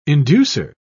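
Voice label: "inducer", British English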